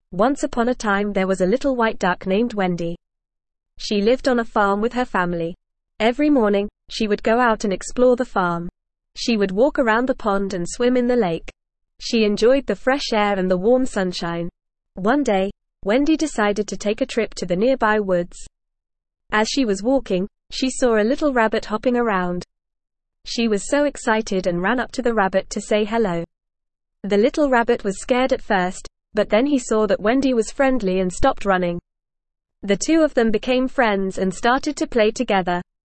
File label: ESL Short Stories for Kids NORMAL reading; Wendy The Little White Duck